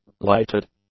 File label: "lighted", english; adjectives